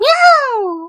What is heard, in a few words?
happy; Techmino